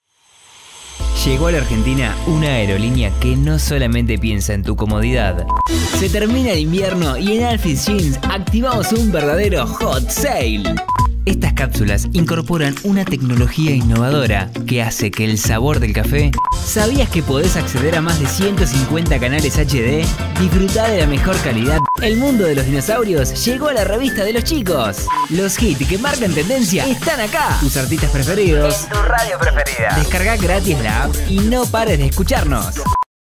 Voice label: Joven Dinamico Cordial, voces masculinas

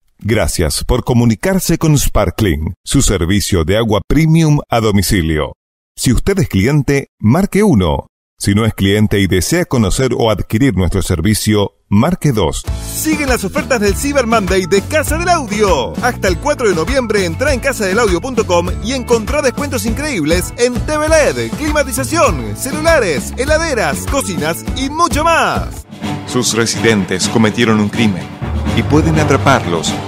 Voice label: Grave Dinamica Medios, voces masculinas

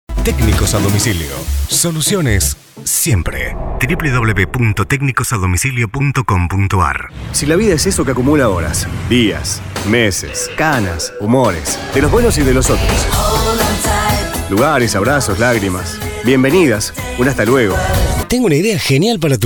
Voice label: Graves Versatil Vendedor, voces masculinas

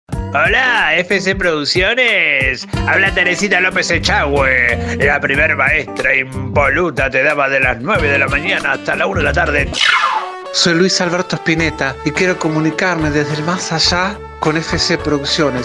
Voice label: Comicidad Acting Showman, voces masculinas; voces femeninas y masculinas

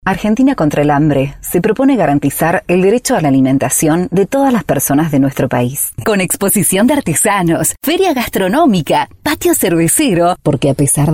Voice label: Versatil Estilo Comercial, voces femeninas

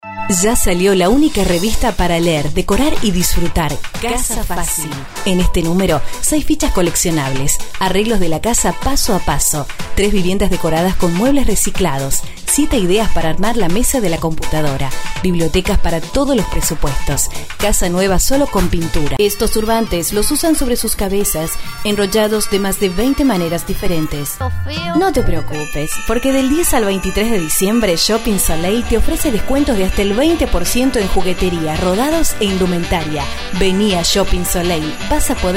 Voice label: Amena Vendedora Estilo, voces femeninas

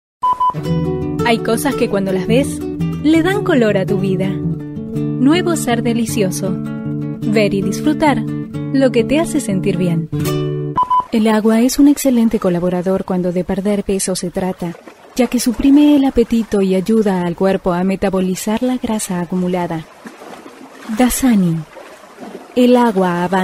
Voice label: Variada Estilo Comercial, voces femeninas

